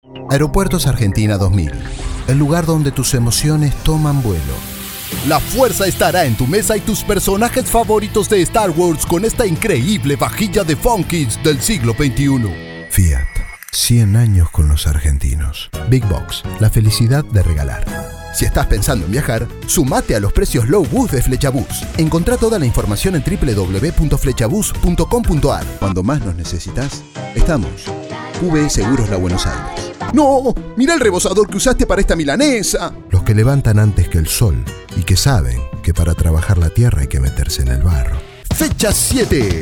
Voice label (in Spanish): Graves Estilo Neutro; Mono V